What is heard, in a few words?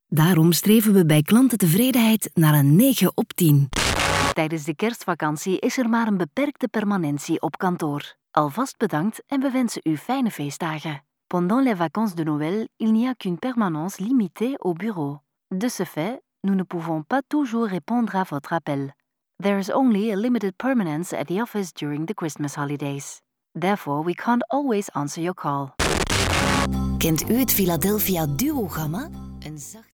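Flemish Female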